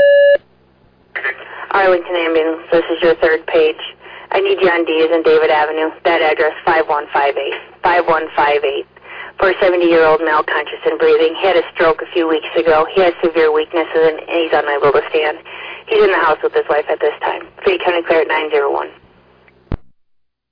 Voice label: Arlington Fire, dispatch